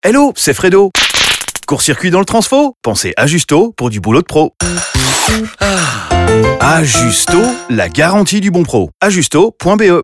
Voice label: Radio FR 10s; Ajusto